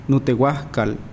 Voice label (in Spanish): Nawat palabras rodilla; pipil